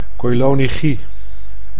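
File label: "koilonychie", Dutch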